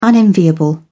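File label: "unenviable", British English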